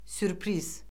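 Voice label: "sürpriz", Azerbaijani; Orfoqrafiya Lüğəti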